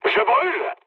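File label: French on fire mood low; War Thunder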